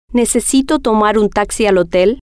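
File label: necesito Tomar Taxi Hotel, female, spanish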